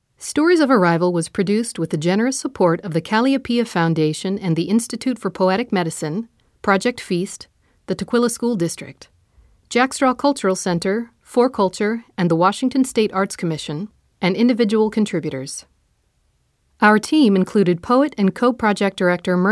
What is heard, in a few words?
Closing Narration